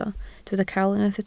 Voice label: "cawl", Welsh